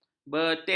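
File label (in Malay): "betis", Malay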